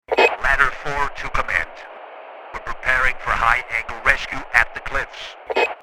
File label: Firefighter radio chatter ladder 4 to command